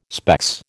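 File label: "specs", American English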